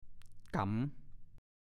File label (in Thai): "ก๋ำ", ภาษาโคราช